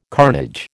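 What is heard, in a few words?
"carnage", American English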